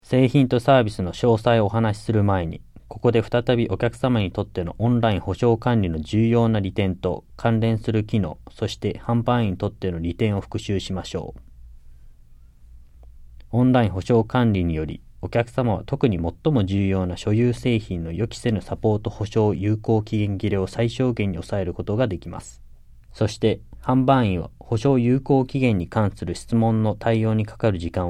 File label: JAPANESE; delltranslations